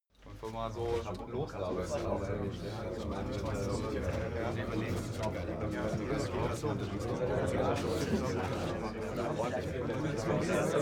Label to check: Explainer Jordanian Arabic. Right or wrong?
Wrong